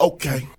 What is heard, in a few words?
"okay", expletives